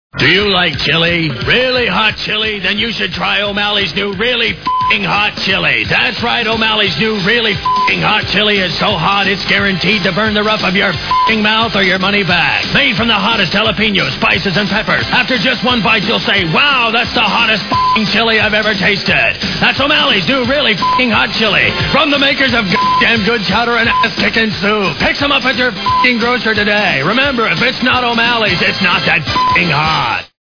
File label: Commercials; O Maley Chili; Freekin Weird Audio